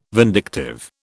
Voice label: "vindictive", British